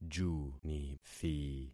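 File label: "junithi", concatenated syllables; Affixing experiment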